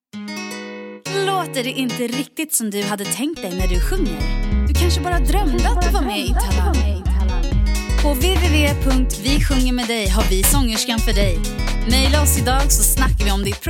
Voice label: Swedish